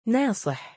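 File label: "naa9i7", Arabic, male; given name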